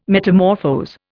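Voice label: "metamorphose", English, Indian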